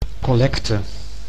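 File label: "collecte", Dutch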